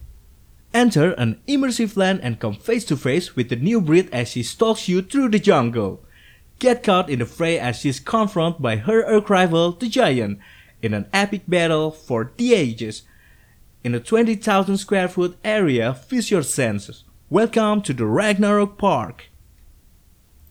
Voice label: Casual English

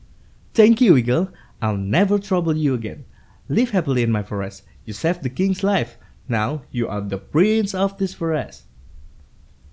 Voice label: Karakter English

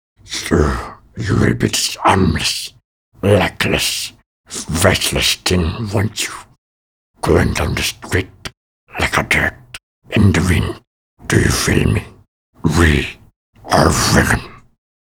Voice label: CHARACTER ENGLISH

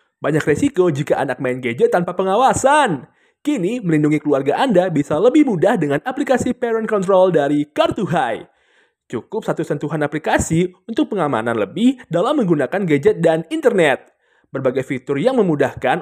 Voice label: Casual Indonesia

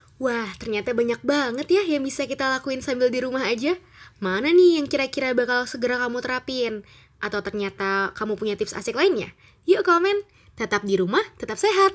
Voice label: BAHASA INDONESIA CASUAL

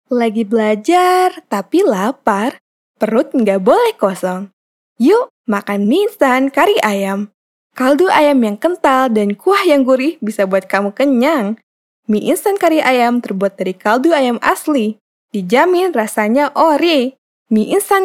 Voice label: CASUAL INDONESIA

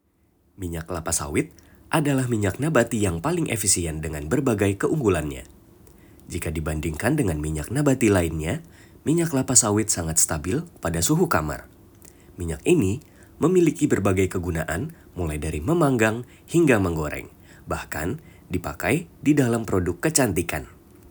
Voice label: Formal Indonesia

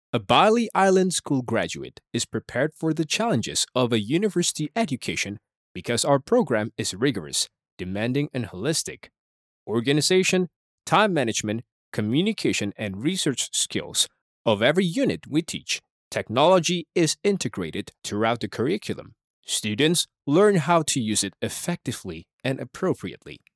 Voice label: English Formal

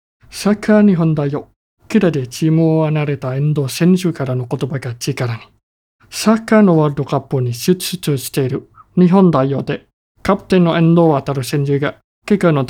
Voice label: formal, Japan

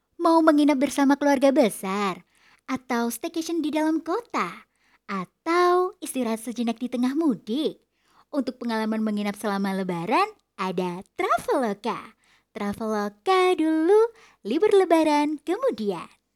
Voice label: casual indonesia

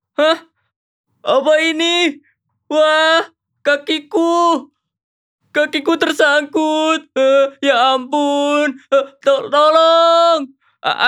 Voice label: CHARACTER INDONESIA

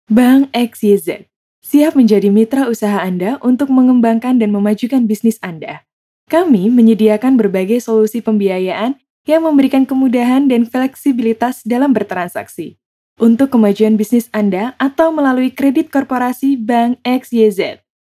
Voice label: FORMAL INDO BANK

